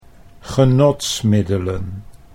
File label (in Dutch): "genotsmiddelen", Dutch